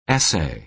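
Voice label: "essay", American English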